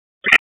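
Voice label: Police District Tactical, p25; wake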